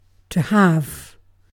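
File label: "have", British English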